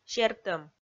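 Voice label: "сертӧм", Komi, female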